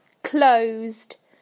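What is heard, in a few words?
"closed", British English; PictureDictionary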